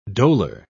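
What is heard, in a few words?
"dolor", British English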